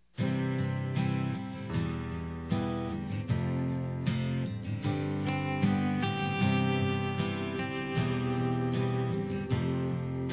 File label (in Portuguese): Reconciliação Desculpa Forte Masculino; Telemensagens